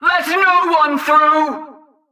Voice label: Female Chokepoint Line, dialogue; NPC; Heist Cultist Chokepoint

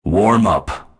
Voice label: Warm Up, PvP Announcer

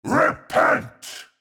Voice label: Mid Fight Taunts; Voll; NPC; Voll Combat

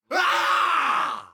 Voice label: Unarmed Elite Alert Line, dialogue

